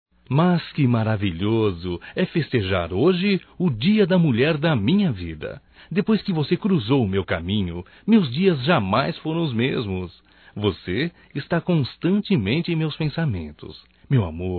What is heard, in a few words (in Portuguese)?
ANIV Romântico (M)